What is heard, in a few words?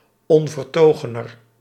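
"onvertogener", Dutch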